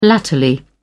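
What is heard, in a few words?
"latterly", British English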